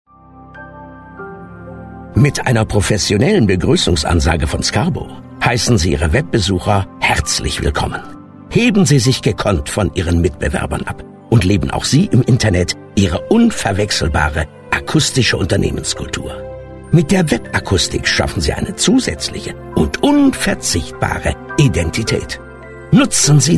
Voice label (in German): Werbung